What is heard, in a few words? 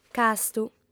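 "castu", female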